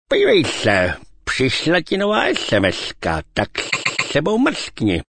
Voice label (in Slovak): sms hlásky cz